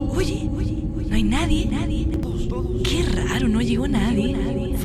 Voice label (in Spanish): espanol personajes